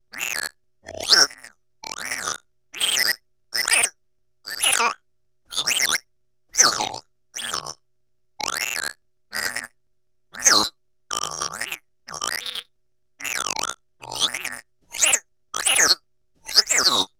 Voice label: Cybertronic Worm, Vocals Humanoid; Sony Pictures Sound Effects Series Volumes 1-10; Sony Creative Software